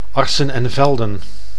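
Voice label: "Arcen en Velden", Dutch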